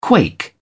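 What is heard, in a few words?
"quake", English, British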